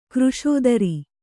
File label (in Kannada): "ಕೃಶೋದರ", Kannada